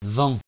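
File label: "vent", French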